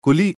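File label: Hindi "क़ुली"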